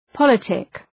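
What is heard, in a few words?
"politic", English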